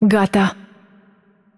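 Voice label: Romanian game outro; VO; bloom minigame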